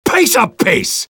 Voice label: positive vocalization; sniper